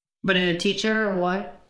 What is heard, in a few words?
Voice Acting, es